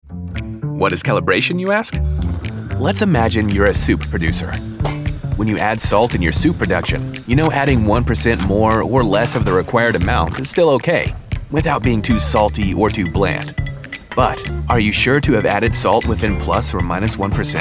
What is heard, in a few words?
eLearning